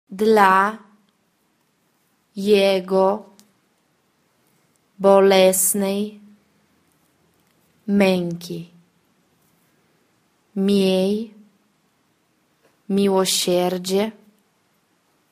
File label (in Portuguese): por sua dolorosa paixa pausado, polaco; divina misericordia